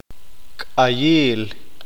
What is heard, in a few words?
"Mercado", Q'eqchi'